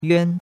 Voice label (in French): "yuan1", chinois; Cours-de-Chinois